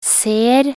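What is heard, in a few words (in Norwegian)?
"ser", Norwegian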